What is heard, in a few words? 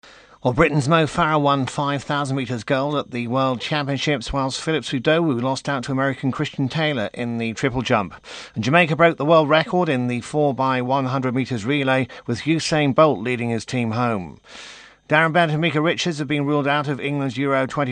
latest sport bulletin; sportsmedia